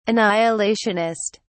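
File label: "annihilationist", British English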